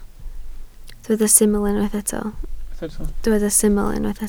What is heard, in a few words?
"syml", Welsh